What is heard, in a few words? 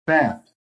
"bat", American